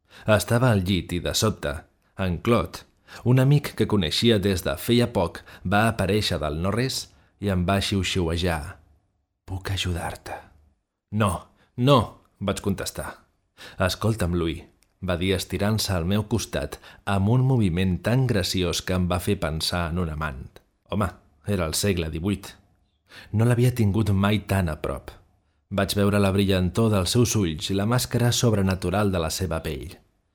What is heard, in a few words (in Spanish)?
narración catalán